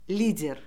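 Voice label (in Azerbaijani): "lider", Azerbaijani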